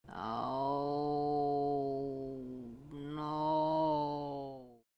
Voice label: Voices Female

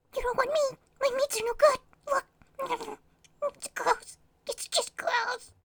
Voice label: Voices Female Beggings

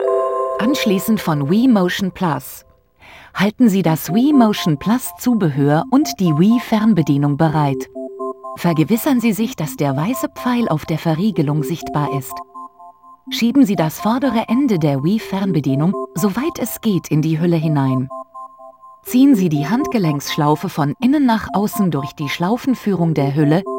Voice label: Connecting Motion Plus (German); the legend of zelda skyward sword wii gamerip 2011

